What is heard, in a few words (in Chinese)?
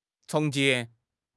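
"崇敬", Chinese; HSK6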